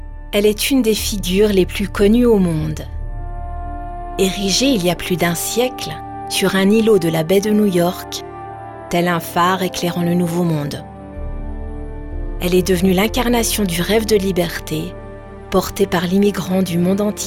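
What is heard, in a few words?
French, narration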